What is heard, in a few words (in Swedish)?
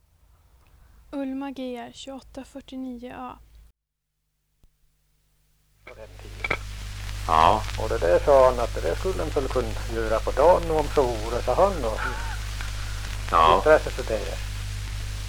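Järbo Gästrikland, dialekter; Svenska sagor; Folkminnen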